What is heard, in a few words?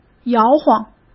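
"摇晃", Mandarin Chinese; chinese-flashcards; hsk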